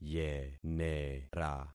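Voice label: "yenera", concatenated syllables; Affixing experiment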